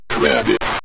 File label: "credits", Synth Voice; Kenney's Sound Pack